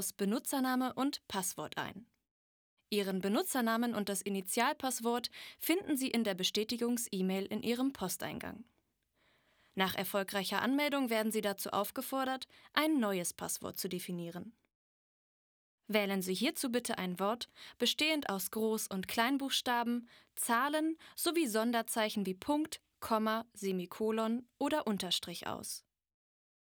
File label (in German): Svea E-Learning